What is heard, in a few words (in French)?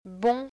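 "bon", French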